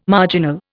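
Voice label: "marginal", Indian English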